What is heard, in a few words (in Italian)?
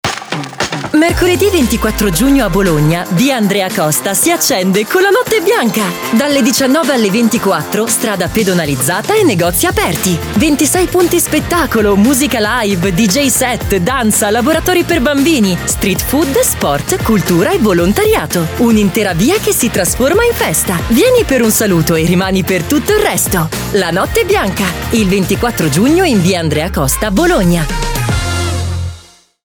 SPEAKER DONNA, demo voci pubblicita radiofonica